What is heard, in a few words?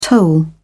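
"toll", British English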